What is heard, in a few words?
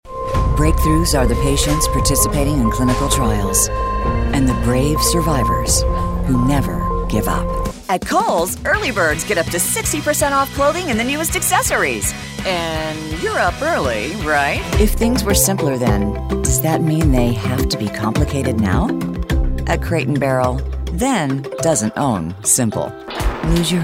Commercial, english female voices american accent